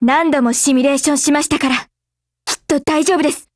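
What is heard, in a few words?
black action, Japanese; GF Alarm; Carcano1891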